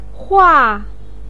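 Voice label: "hua", Mandarin pinyin, fourth tone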